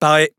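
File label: French Speech Pilots Fighter Ready; World Of Warships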